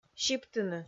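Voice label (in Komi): "сиптыны", Komi, female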